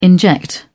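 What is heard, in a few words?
"inject", British English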